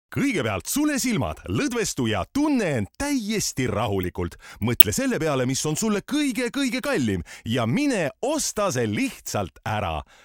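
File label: Estonian, male; Professionelle Sprecher und Sprecherinnen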